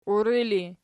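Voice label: "uiri" ergative, Archi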